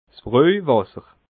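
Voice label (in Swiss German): "brojwasser", Alsatian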